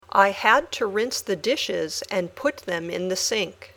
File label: Dictation